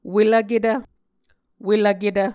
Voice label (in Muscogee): "welvketv", Muscogee